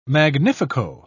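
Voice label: "magnifico", British English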